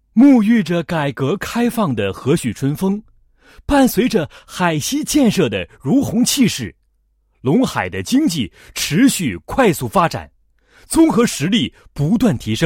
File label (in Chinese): 男 纪实; 加强省农民创业示范基地创建纪实